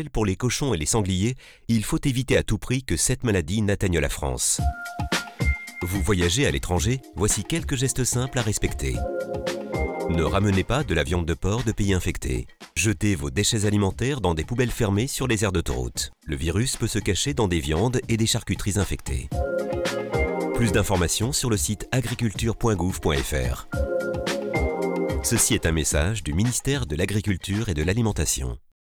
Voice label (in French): spot peste porcine africaine voyageurs